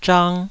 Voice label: "zhang2", taiwanese